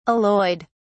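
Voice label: "alloyed", British English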